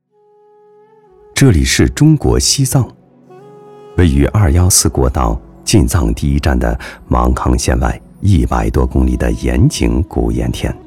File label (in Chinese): 男 独白; 当家日记